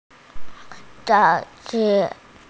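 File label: "zhuo1 zi5", Mandarin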